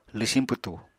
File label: "lwsiñ pwtu", Apatani